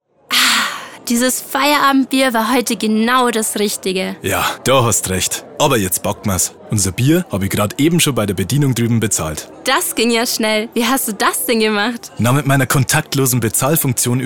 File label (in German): Werbung Sparkasse